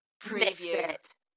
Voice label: UK female Mix it Dalekness; beanstalk audio